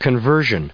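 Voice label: "conversion", US English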